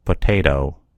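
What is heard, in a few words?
"potato", American English; gcompris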